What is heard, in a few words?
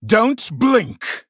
Male Blood Elf Attack